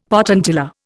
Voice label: "potentilla", Indian; Dictionary